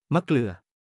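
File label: "มะเกลือ", Thai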